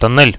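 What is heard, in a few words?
in the tunnel, Russian, radio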